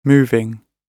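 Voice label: "moving", British English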